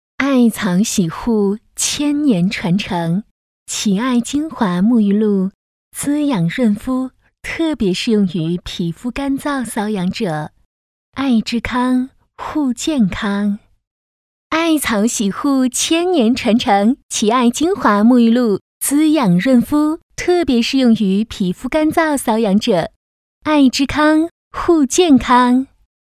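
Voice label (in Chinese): 小广告沐浴露; 蕲艾精华沐浴露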